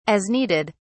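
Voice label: "as needed", British English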